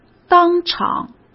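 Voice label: "当场", Mandarin Chinese; chinese flashcards; hsk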